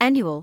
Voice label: "annual", medical english

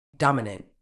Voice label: "dominant", uk

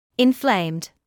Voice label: "inflamed", medical English

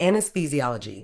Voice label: "anaesthesiology", Medical English

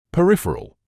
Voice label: "peripheral", English

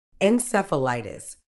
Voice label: "encephalitis", uk

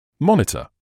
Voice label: "monitor", English

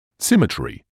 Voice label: "symmetry", English

